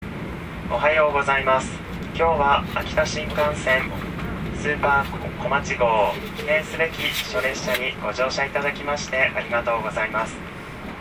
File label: announce; SuperKOMACHI